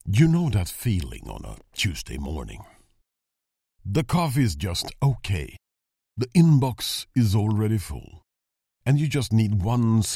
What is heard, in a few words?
conversational; english